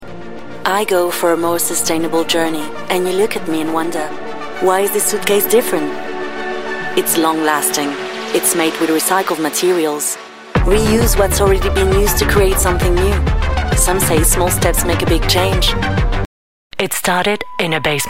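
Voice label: advert English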